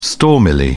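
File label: "stormily", British English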